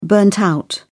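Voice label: "burnt-out", British English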